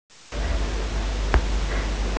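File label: "ceng2" Chinese, female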